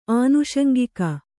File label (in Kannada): "ಆನುಷಂಗಿಕ", Kannada